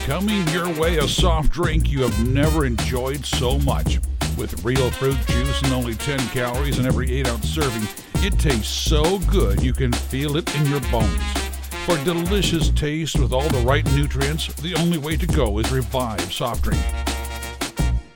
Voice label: Soft Drink Commercial; Revive